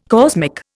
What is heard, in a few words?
"cosmic", Indian